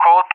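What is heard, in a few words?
"Colt", English, RUSSIA; CallSign; DCS; Allied Flight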